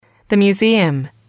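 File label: "museum", English, female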